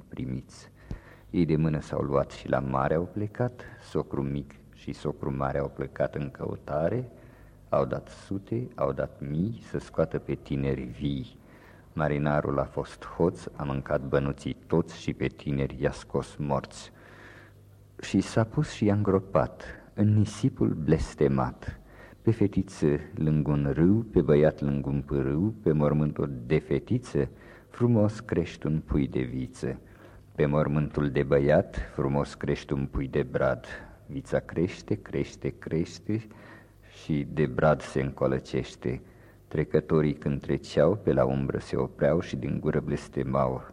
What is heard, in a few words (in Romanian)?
La fantana cu doi brazi recitat; Fonoteca Arhivei de Folclor Vasile Adascalitei - CJCPCT Vaslui; jocuri si cantece batranesti